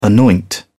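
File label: "anoint", British English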